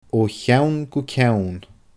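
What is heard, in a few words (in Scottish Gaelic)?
"o cheann gu ceann", Scottish Gaelic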